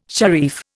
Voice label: "sharif", Indian